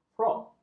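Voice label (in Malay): "frok", Malay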